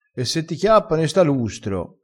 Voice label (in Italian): "sta lustro", crespinese